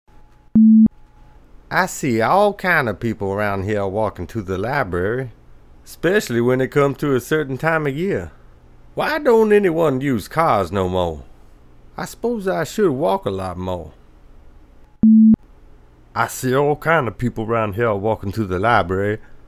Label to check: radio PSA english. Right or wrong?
Wrong